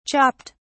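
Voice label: "chopped", British English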